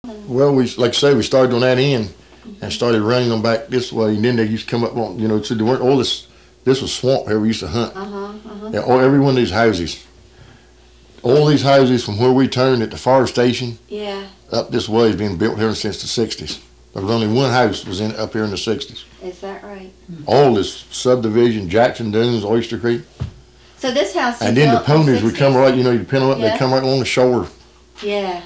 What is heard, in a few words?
Ocracoke Male, Tar Heel dialects; North Carolina; quiz voices of north carolina